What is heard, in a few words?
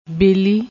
"belli", Punjabi